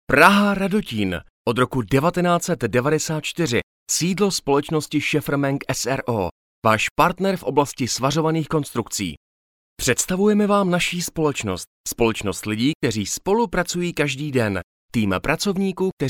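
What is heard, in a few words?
CZ M narration, Czech, male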